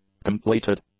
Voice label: "completed", English; adjectives